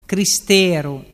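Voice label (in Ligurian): "cristeru", Ligurian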